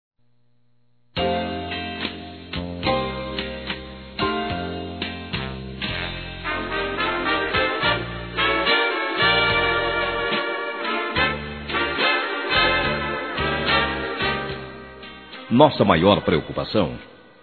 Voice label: Aniversário Cliente Masculino; Empresarial; Telemensagens 2017

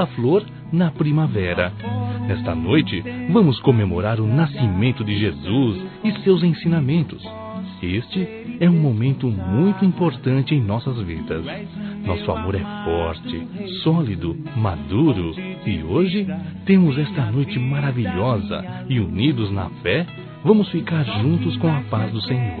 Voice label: Natal Romantico Masculino; Telemensagens; Natal e Ano novo

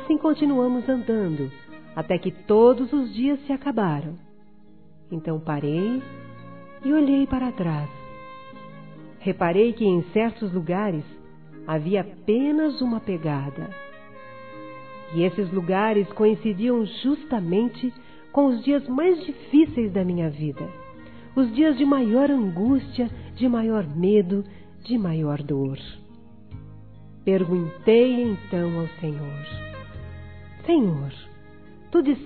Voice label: PEGADAS F, Telemensagens, Gospel; fem; Telemensagens 2017

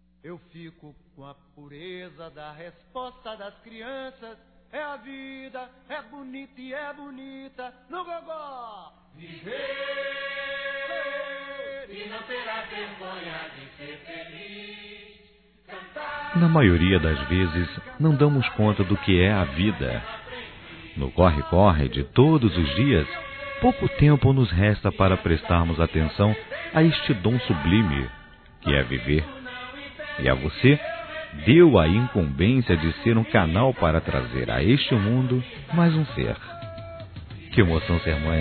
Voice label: PARAB. GRAVIDEZ, masc; Telemensagens; Parabenização

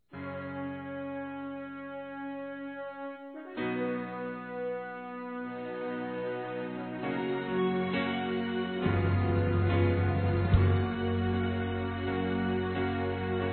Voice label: Mensagem Filha Masculino

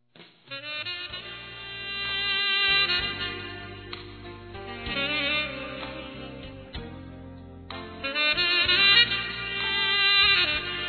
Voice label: Aniversário Neto Feminino, Telemensagens; Aniversários; Aniv Familiar

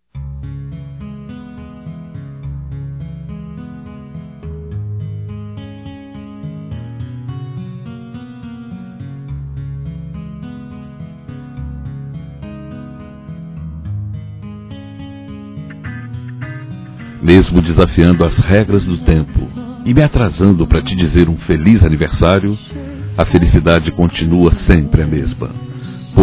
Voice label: Atrasado Masculino, Aniversários; Aniversários diversos

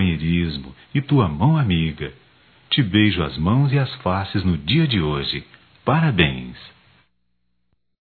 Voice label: Dia da Sogra m, Só Voz, masc; Telemensagens; Telemensagens 2017

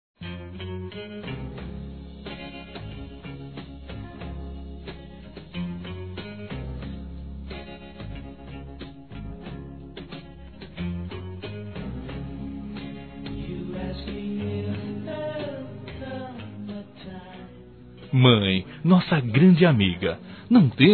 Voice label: Aniversário Madrasta duas vozes, Telemensagens; Aniversários; Aniv Familiar

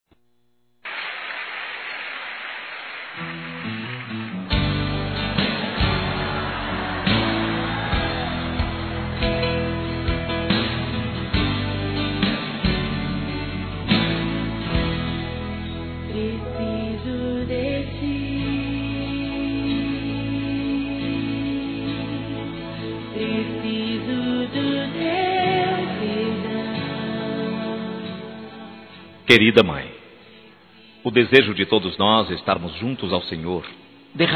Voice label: Aniversário Mãe Evangelico Masculino, Telemensagens

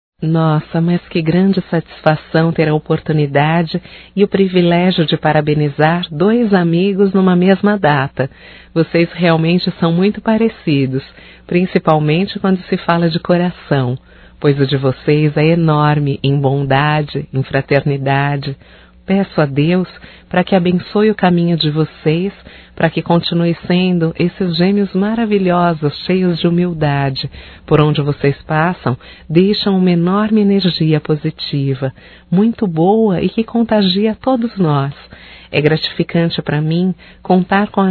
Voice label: Aniversário Feminino, Telemensagens; Telemensagens 2017; Aniversarios

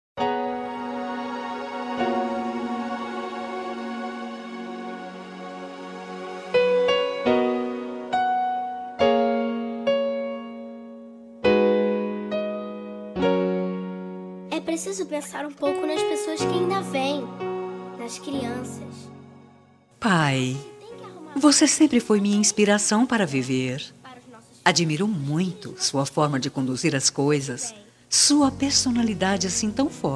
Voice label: ANO NOVO P PAI FEM; Datas importantes; Natal e Ano novo

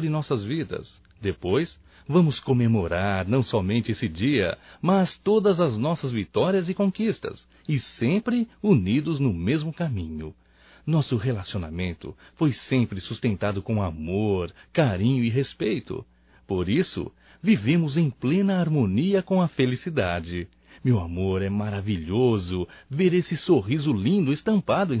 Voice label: ANIV Romântico (M)

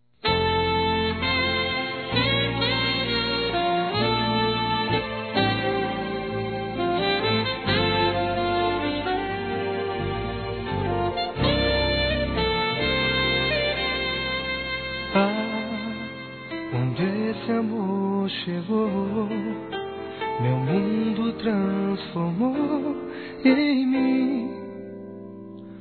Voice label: Aniversário Namoro Masculino

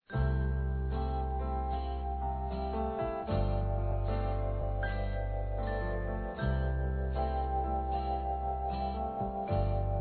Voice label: CRIANÇA (F), Telemensagens; Aniversário Infantil

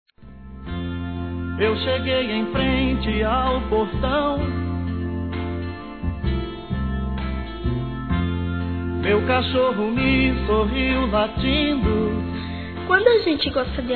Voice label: Aniversário Avô Infantil Feminino; Telemensagens

